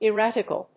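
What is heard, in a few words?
"erratical", English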